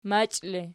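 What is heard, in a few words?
"machi", ergative, Archi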